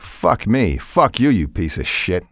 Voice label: cuss, male; kingpin; profanity